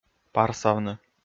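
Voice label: "парсавны", Komi, male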